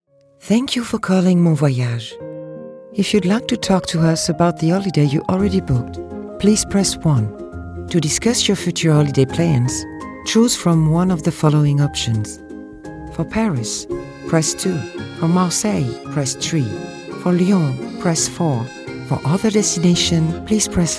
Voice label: english commercial

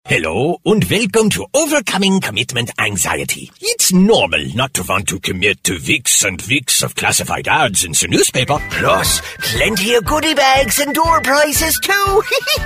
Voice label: english international animation; Voz masculina; neutral en inglés norteamericano; sin acento regional

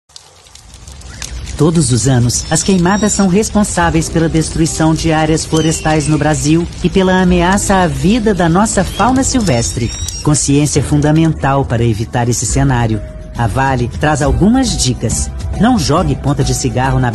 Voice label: portuguese brazilian announcements, voice actors

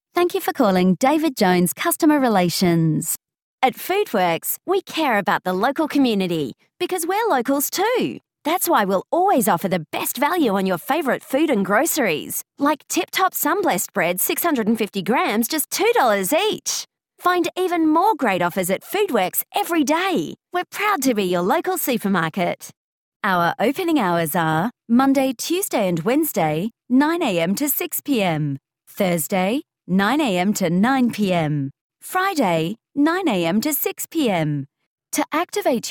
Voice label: english international ivr

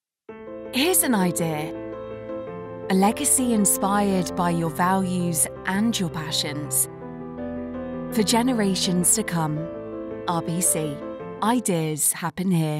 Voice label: english british corporate videos

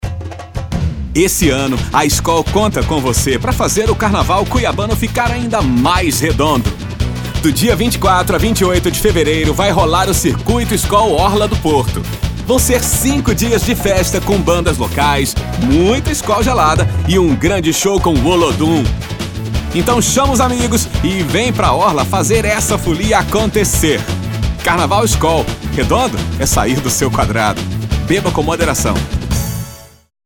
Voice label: portuguese brazilian promos

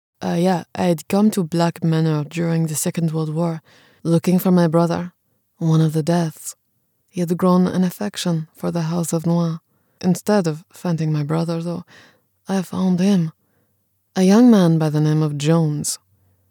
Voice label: English international video games

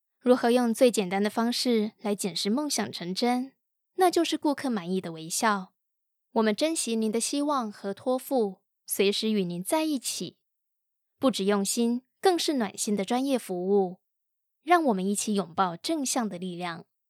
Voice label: taiwanese others; Taiwanese Mandarin speaker

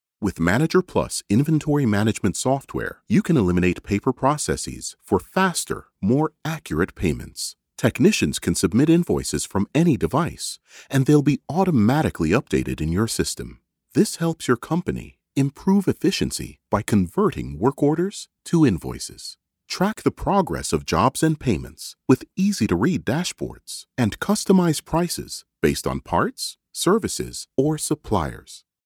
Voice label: english canadian e-learning

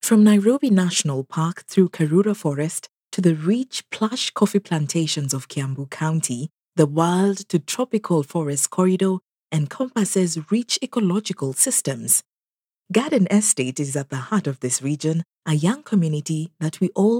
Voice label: english african narration

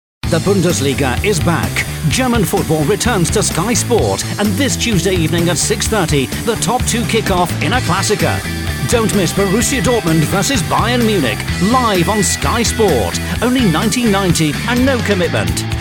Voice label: english british movie trailers

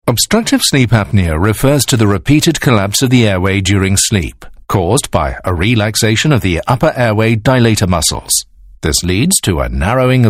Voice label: english british medical narration

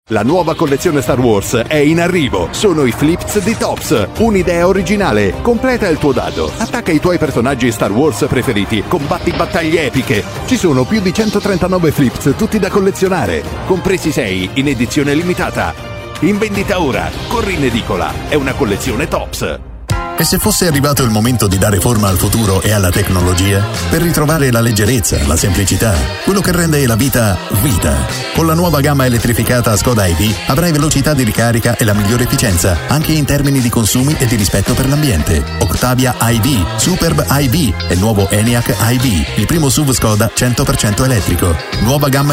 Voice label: italian commercial

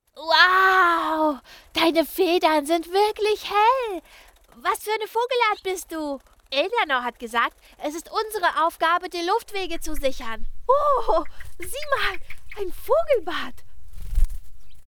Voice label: german animation

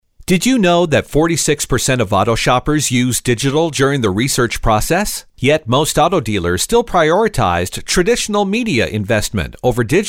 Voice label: english canadian narration

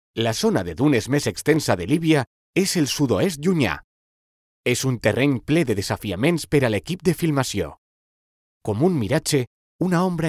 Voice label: Catalan documentaries

